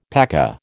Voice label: "paca", American